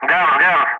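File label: "guns", English; Ka-50; Wingman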